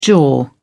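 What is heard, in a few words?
"jaw", British English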